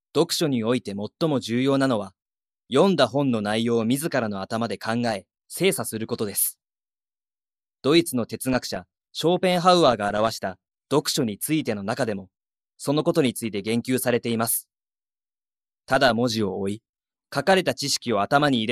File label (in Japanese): ストレートナレ